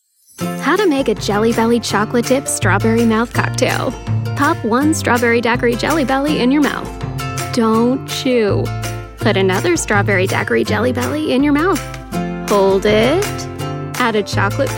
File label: american voice actor natural